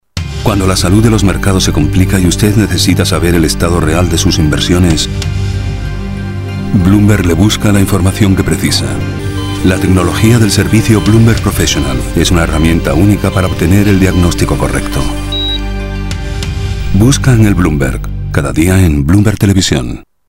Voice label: television locutor; Promocional del canal Bloomberg televisión

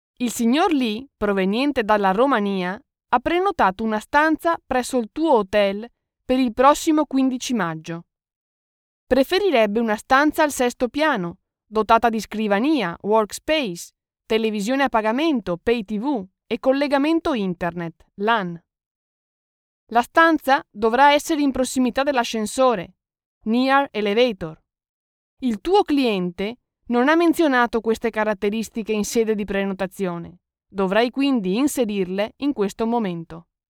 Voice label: locución curso multimedia italiano; Multimedia course voiceover

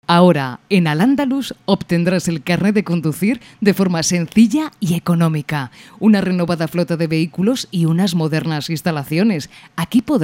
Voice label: locutora; spanish voices over spain

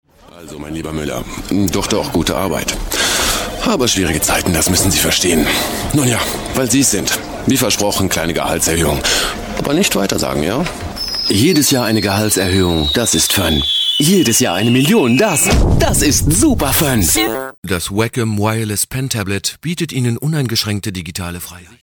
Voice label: locutor aleman; Deutscher Sprecher

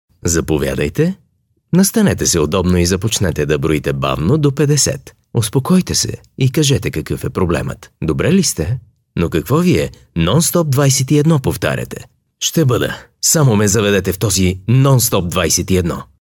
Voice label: locutor bulgaro; locutor de Bulgaria.

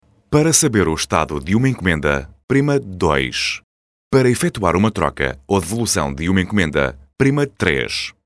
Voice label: locutor portugues

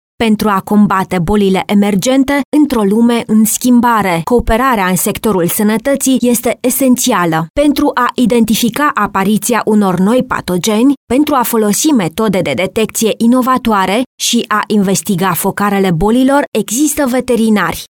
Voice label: romanian voiceover locutora rumana

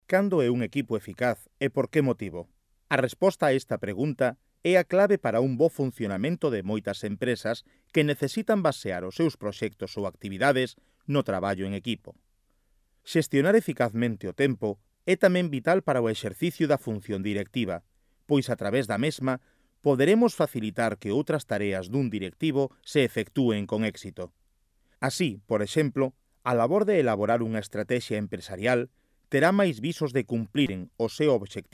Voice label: locutor galego; galician voiceovers

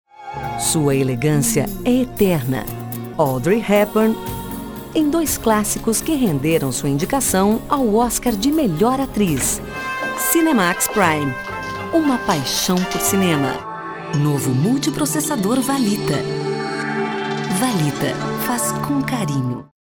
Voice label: locutora brasil; locutora de Brasil

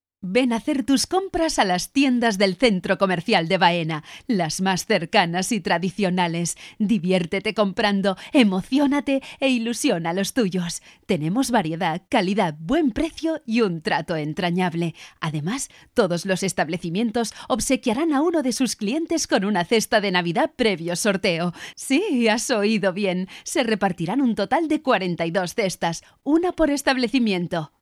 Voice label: locutora spain

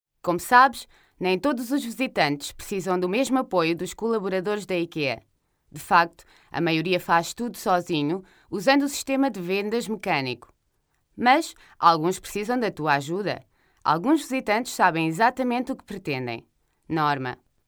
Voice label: locutora angola; Portuguese

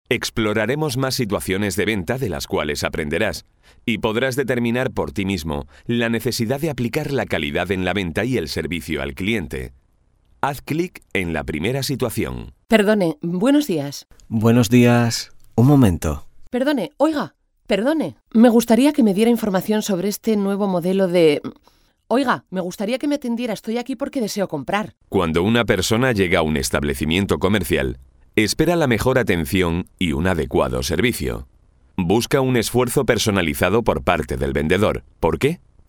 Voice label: locuciones curso tecnicas; Speech for a techniques course

